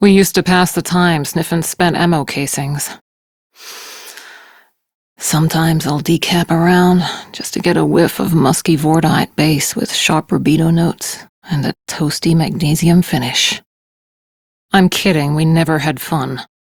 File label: Crew Idle, Steel Meridian Female; Dialog; en; Warframe; RailjackCrew